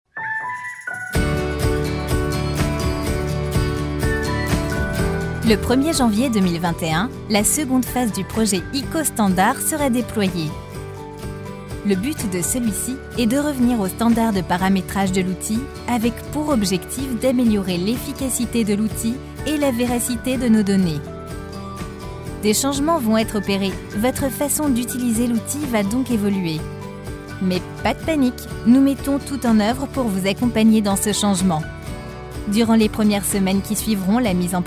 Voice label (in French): Institutionnel Voix Off femme, Voix médium; Voix médium, explicative; Projet réalisé en Motion; Ikos Standard